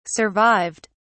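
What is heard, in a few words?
"survived", British English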